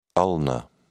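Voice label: "ulnar", British English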